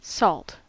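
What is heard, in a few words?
"salt", English word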